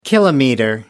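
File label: "kilometer", British English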